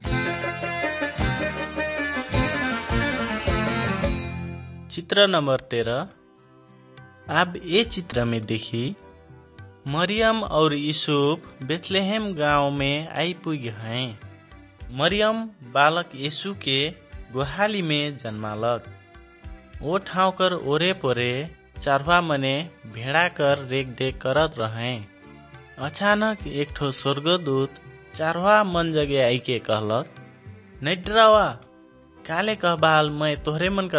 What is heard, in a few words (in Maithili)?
good news maithili, nt drama